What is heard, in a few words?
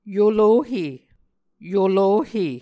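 "yolohe", Muscogee word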